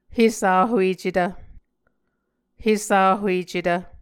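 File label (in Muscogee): "hesahuecetv", Muscogee word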